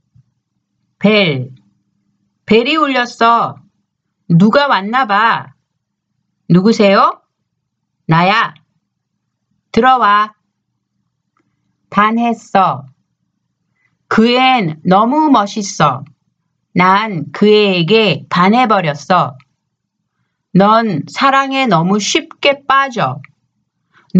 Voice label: conversation; K-Pop Volume 3